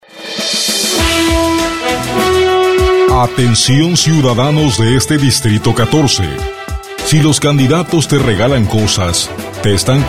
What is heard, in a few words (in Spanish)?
Spot radio